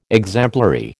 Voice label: "exemplary", American

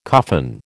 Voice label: "coffin", American

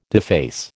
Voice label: "deface", American

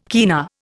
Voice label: "kina", Indian

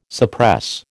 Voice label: "suppress", American

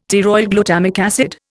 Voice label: "pteroylglutamic acid", Indian; Dictionary

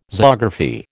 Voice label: "zoography", American

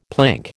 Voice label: "plank", American

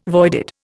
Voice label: "voided", Indian

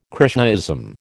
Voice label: "Krishnaism", American

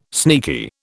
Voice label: "sneaky", British

